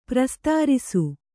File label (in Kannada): "ಪ್ರಸ್ತರಿಸು", kannada